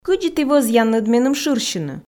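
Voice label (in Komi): кыдзи ті вӧзъянныд меным шырсьыны, Komi, female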